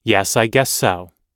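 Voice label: everyday agreeing, American English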